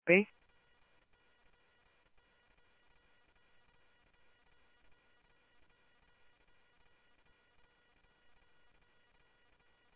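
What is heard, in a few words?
police radio scanner traffic, Mesa Simulcast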